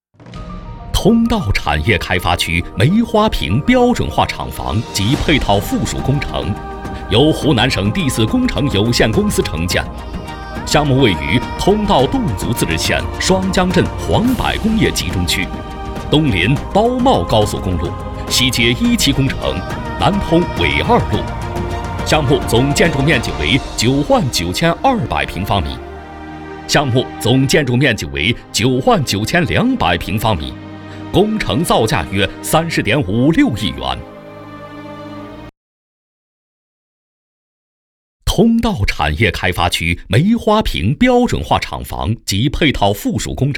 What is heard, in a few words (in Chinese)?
男 工程 标准化工程